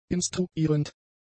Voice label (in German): "instruierend", German; adjectives